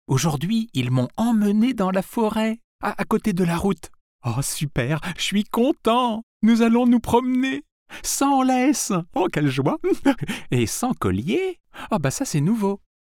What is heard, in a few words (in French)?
voix off contes; parait libre